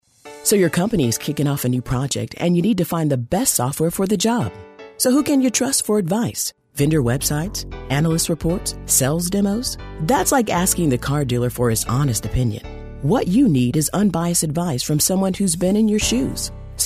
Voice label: voiceover narration women

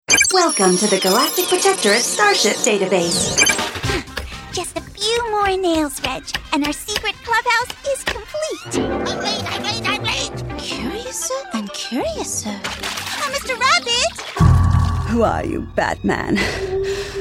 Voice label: voiceover character women

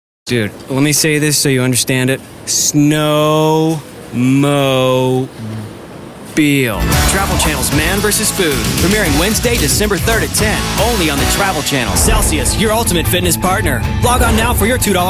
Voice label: M Commercial, voiceover mens

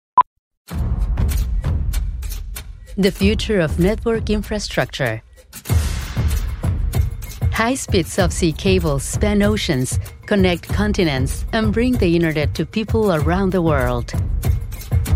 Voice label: narration, women

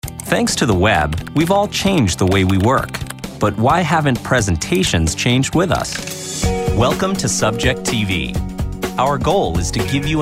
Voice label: M Narration, voiceover, men

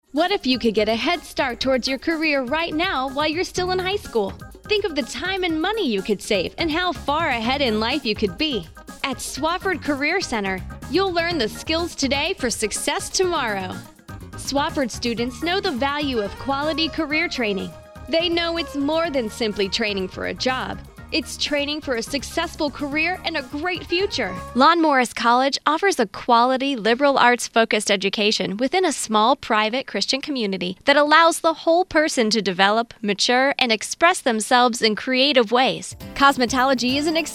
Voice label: narration, women

